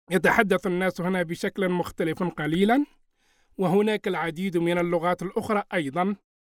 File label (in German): Arabisch